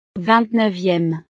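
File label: "ving neuvieme", French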